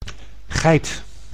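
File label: "geit", Dutch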